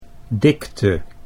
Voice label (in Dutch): "dikte", Dutch